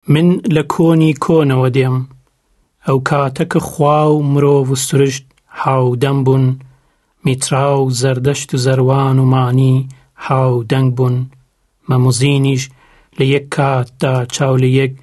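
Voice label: male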